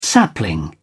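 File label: "sapling", British English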